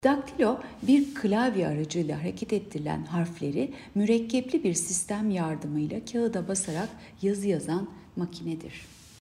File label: turkce